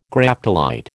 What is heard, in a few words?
"Graptolite", American